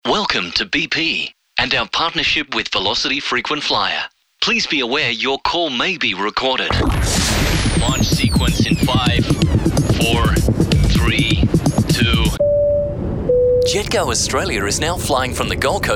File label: TV and RADIO reads